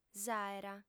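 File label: "s'àera", Sardinian, female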